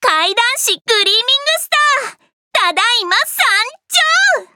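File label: start, Japanese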